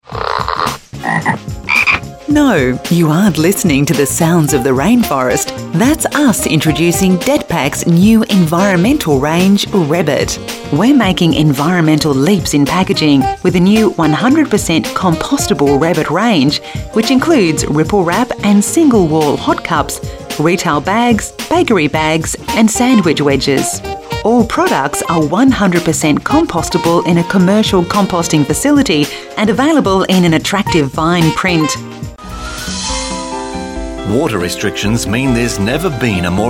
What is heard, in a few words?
manufacturing messages on hold